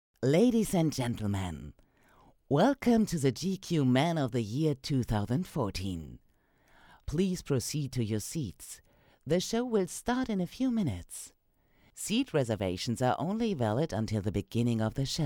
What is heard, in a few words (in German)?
Foyer englisch